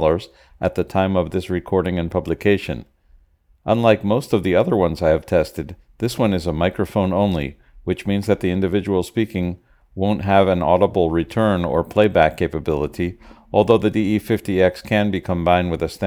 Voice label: Samson DE50x noise reduction

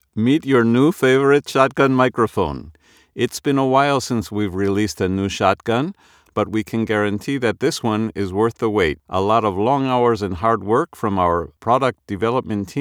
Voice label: in Whisper Room

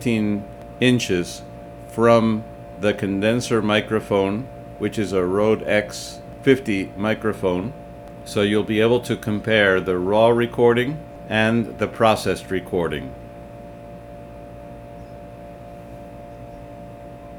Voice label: Noisy and echo

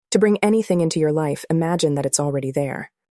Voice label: American Female Adult; ElevenVoices

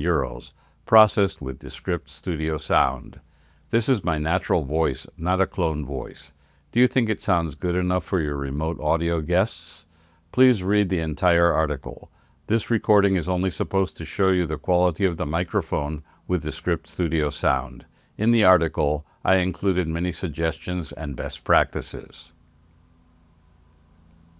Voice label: Apple wired USB-C English; Descript Studio Sound

